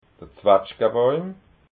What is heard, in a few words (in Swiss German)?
"zwatschgabauim", alsace